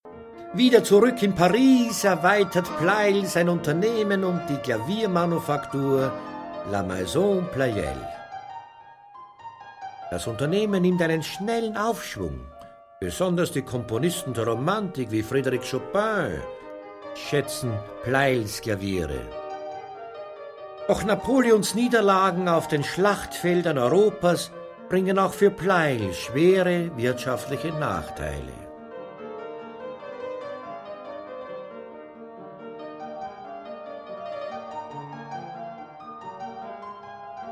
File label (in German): Hörbuch; pleyel